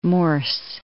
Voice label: "morse", English